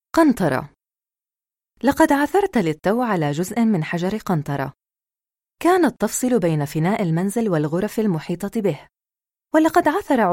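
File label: Arabic, female, narration; voice over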